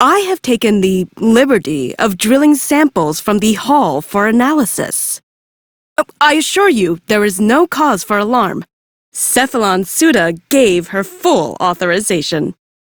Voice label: Idle, Female; en; Warframe; Railjack Crew; Cephalon Suda

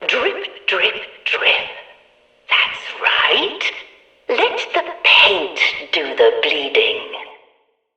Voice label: Background Announcements

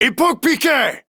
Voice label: Attack Male, Battle Barks; en; Modular Corpus

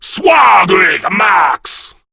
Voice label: Reload, Male; Warframe; Grineer Male B; Battle Barks

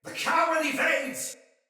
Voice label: Sight Lost Shout, Soldier Male; Sinecure Soldier Male A

